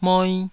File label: "moi2", taiwanese